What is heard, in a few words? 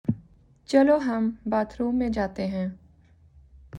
Urdu